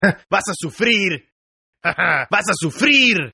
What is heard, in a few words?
Toughguy Pay Spanish, Tough Hispanic Male; Saying "We Are Gonna Make You Pay!" In Spanish; Attitude Sound Effects